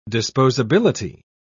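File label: "disposability", British English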